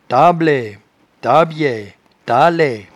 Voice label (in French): "tablaie", patois jura